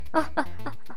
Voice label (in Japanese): ダメージボイス; 悪魔城ドラキュラ(FC版)